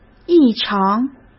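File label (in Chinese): "异常", Mandarin Chinese; hsk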